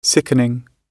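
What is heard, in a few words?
"sickening" British English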